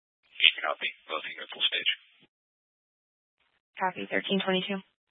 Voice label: Fire Dispatch

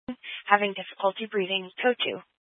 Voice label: Fire Dispatch, scanner

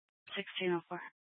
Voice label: Fire Department Dispatch, scanner; Grand Junction

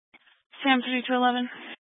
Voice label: Police Dispatch, scanner; Police 1 Dispatch

